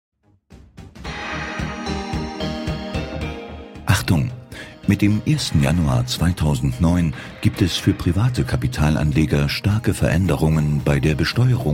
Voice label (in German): Werbespot; Volksbank Hohenlohe